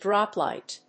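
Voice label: "droplight", English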